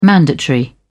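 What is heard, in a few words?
"mandatory", British English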